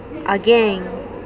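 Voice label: "again", Japanese female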